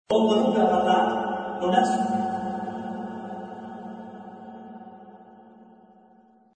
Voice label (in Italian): Voce fuori campo